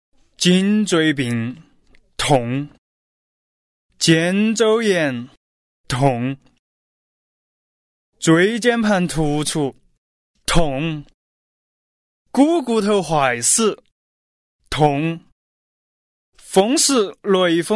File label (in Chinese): boy, nanchong, fangyan; Chinese